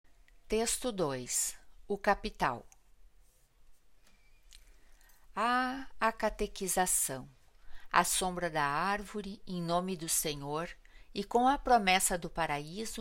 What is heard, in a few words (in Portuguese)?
narração; o Capital; galeria virtual